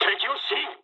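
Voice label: Japanese enemy detected mood low; War Thunder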